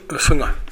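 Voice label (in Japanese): "suga", 南山形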